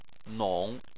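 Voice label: "nong" third tone, Chinese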